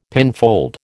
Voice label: "pinfold", American